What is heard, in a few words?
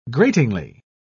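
"gratingly", British English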